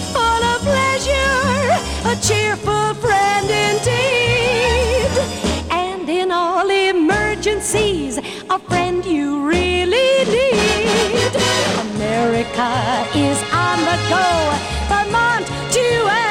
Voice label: Portable Radio